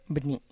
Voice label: "bni", dew, tlacochahuaya

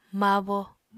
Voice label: "mabo", Apatani

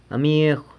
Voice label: "amiegw", friend, San Lucas Quiavini

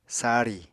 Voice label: "sari", Apatani

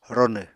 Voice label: "ronw", apatani

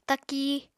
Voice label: "taki", Apatani

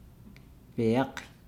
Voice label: "bag", Nukuoro

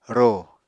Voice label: "rò", Apatani

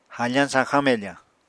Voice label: "hafañ sañxañ mefañ", apatani

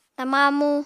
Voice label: "tama amu", Apatani

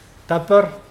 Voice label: "catch", Mokilese

